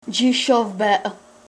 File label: i dont know, Klingon